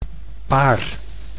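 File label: "paar", Dutch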